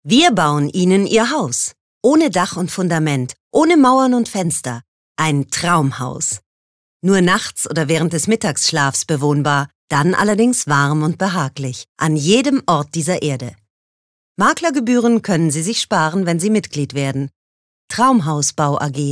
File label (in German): Werbung Dynamisch